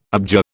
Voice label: "abjure", British

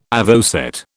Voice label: "avocet", British

